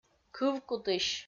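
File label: "кывкутысь", Komi, female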